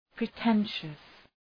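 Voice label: "pretentious", English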